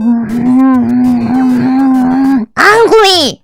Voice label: angry